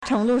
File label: "cheng2du4", Chinese